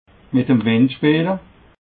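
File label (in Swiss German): "windspeele", Haut Rhin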